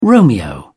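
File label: "romeo", English, British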